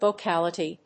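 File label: "Vocality", English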